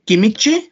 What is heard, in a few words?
"kimichi", Náhuatl; ratón; mouse